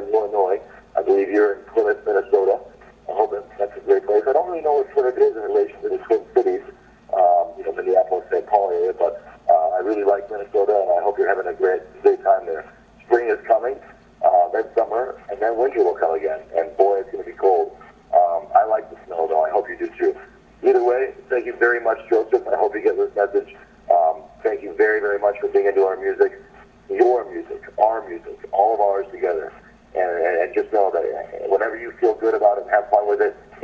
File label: Phone Call